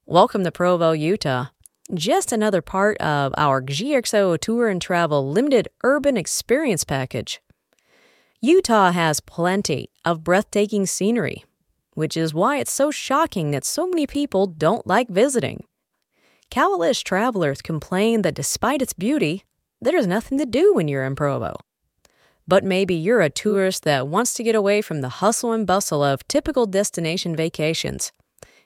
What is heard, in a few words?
FEMALE; GXO PROVO